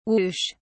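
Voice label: "woosh", British English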